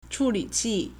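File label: "chǔlǐqì", Chinese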